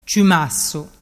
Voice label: "ciumassu", dialettu